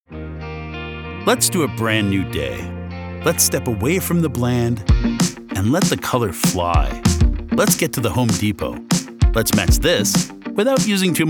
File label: Commercial